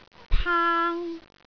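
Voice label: "paang1", Cantonese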